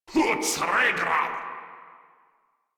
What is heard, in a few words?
Player Spotted, Grineer Male; Warframe